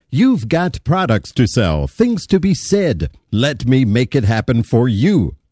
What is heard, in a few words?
confident